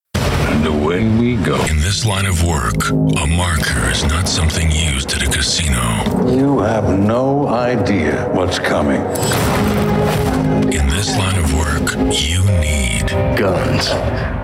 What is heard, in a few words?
Movie Trailer VO; John Wick